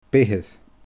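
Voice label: "beithir", Scottish Gaelic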